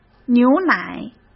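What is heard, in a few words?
"牛奶", Mandarin Chinese; chinese-flashcards; hsk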